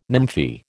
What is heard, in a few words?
"nymphae", American